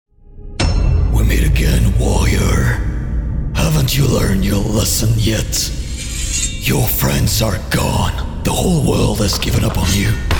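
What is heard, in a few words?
Character Cartoon, English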